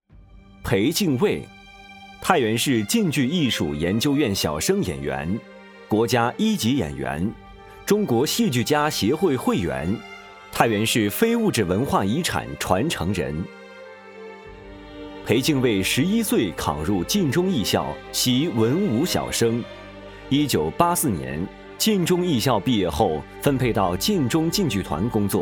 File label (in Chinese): 男 专题 磁性 沉稳 人物介绍解说词